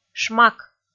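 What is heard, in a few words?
"шмак", Komi, female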